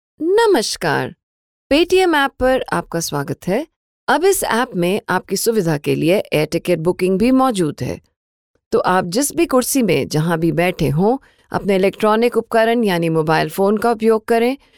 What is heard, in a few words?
Hindi, female voice; Paytm